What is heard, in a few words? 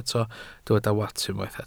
"wats", Welsh